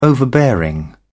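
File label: "overbearing", English, British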